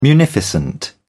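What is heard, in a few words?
"munificent", British English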